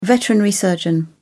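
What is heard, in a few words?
"veterinary surgeon", British English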